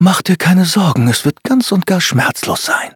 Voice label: battle de

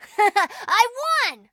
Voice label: victory, en; cookie run

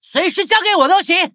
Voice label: battle, zh-hant

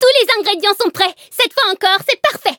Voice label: levelup, fr; Cookie Run

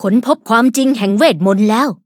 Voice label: level up, th; cookie run

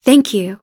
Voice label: level up, en

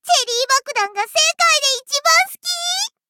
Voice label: upgrade, ja; cookie run

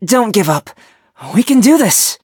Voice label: defeat, en; cookie run

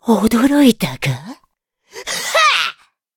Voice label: levelup ja; cookie run

